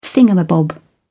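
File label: "thingamabob", British English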